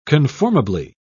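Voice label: "conformably", British English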